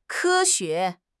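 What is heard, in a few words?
"ke1 xue2", Mandarin Chinese; HSK4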